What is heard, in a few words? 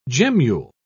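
"gemmule", British English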